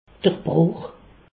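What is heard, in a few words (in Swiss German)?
"bruch", Alsace, Haut Rhin; fracture; medecine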